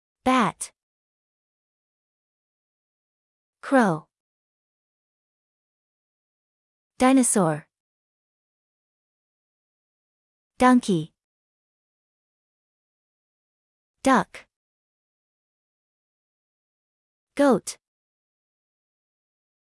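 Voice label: American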